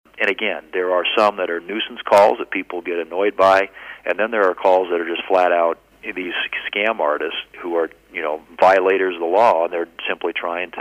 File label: robocall